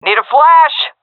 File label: request flash, seal fem; vo; Counter Strike Model Pack; CS:GO